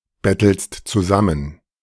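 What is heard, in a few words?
"bettelst zusammen", German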